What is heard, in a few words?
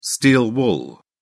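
"steel wool", British English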